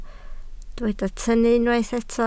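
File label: "tynnu" Welsh, spoken word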